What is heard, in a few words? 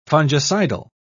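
"fungicidal", British English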